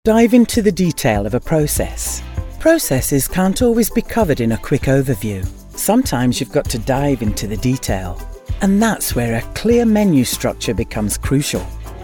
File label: eLearning; Detail of processes